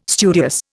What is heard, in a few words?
"studious", Indian